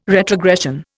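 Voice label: "retrogression", Indian English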